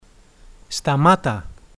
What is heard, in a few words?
"stop", Greek